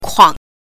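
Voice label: "kuang", Mandarin Chinese pinyin, neutral tone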